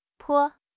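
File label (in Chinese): "坡", Chinese; HSK6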